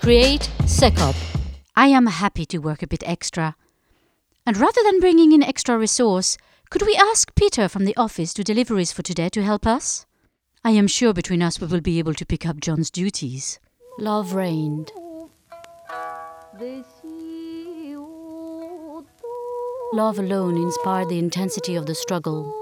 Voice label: English with Slight European and Heavy French Accent